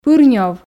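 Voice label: "пырнёв", Komi, female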